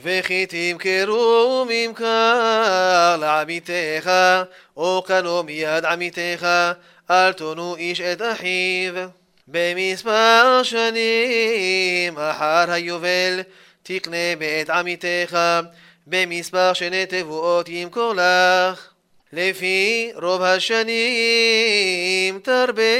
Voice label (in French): Paracha Behar 2e montee marocain; vayikra levitique